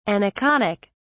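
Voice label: "aniconic", British English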